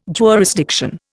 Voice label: "jurisdiction", Indian

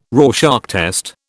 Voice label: "Rorschach test", British

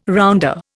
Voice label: "rounder", Indian

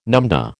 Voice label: "numdah", American

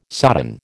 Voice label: "sodden", American

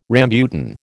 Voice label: "rambutan", American

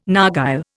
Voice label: "narghile", Indian

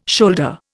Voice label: "shoulder", Indian

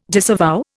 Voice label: "disavow", Indian

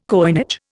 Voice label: "coinage", Indian

